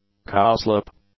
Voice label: "cowslip", English; nouns